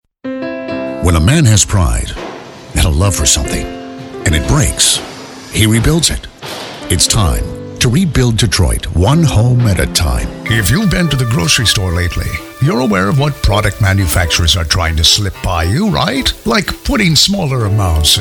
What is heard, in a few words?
Commercial